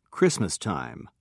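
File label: "christmastime", British English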